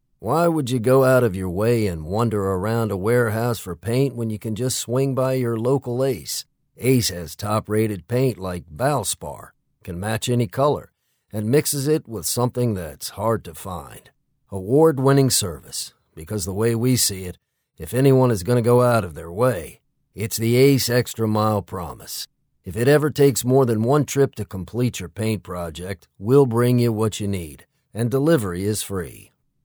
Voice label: Hardware Commercial Spot